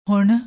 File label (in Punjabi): "hunn", Punjabi; ਹੁਣ